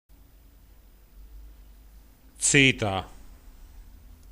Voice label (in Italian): fidanzata, ruffano